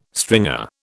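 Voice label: "stringer", British English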